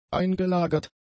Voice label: "eingelagert", German; adjectives